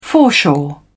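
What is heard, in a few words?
"foreshore", British English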